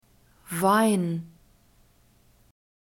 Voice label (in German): "wein", German